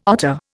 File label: "otter", Indian